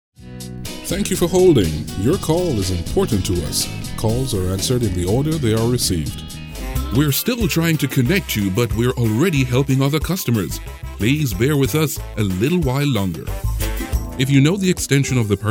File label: IVR phone prompts On Hold messages